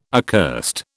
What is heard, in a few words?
"accursed", British